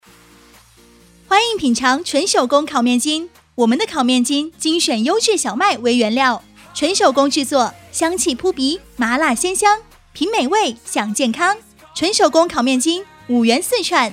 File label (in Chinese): 街摊叫卖样音, 女